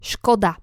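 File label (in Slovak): "skoda", Slovak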